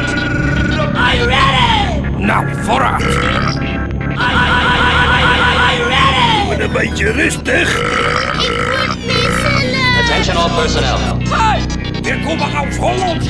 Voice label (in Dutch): Jingle, SNELLER VEEL PLEZIER GEINIG OVERGEVEN SNAVELTJES DICHT